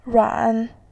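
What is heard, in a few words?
"ran", Mandarin Chinese, third tone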